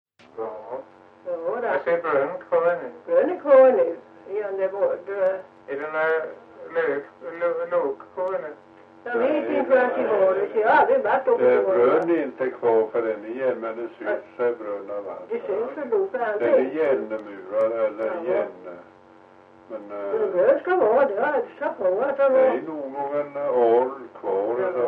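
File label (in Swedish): Ventlinge Öland, dialekter; Folkminnen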